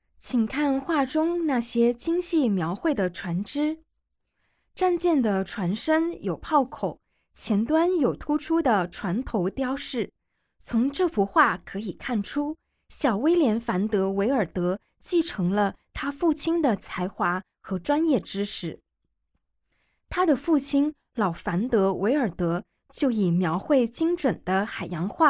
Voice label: Mandarin, audio guide; g9-botticelli-to-van-gogh